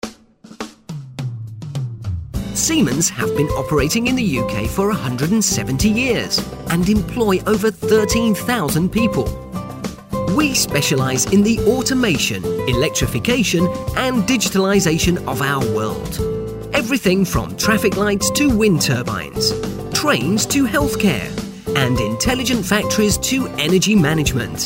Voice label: uk narration samples